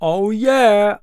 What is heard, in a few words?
Male Voice Oh Yeah